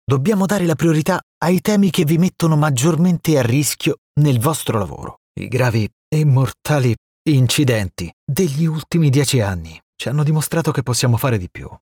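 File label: Italian, male, Interviews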